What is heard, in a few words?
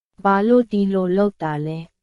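Myanmar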